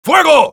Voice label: auto on fire es; Sniper; "¡Fuego!"